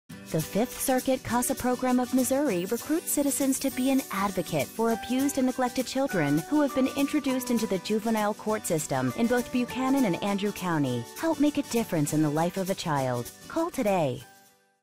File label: Recruitment Ad; 5th Circuit CASA